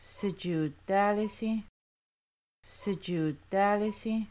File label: we might come together, Kaska; Washing Dishes